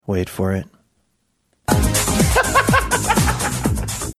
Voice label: saying wait for it laughing